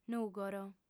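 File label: "nùgoro", female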